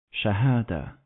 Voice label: "Shahadah", Arabic

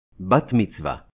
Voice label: "Bat Mitzvah", Hebrew

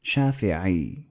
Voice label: "Shafi’", Arabic

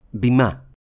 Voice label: "Bimah", Hebrew

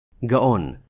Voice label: "Gaon", Hebrew

